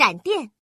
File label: lightning, female; FreeKill; standard cards